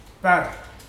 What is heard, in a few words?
sprouting coconut, Mokilese